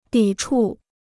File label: "抵触", Chinese